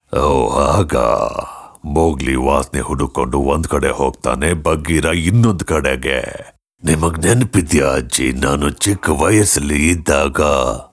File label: Acting